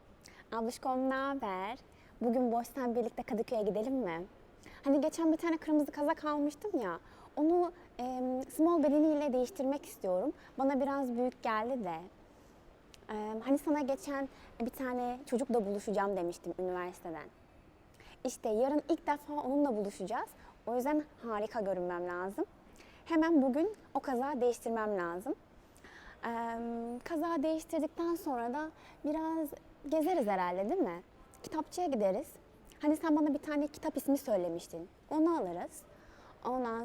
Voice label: Turkish native speaker